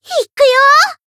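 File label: attack, Japanese; melody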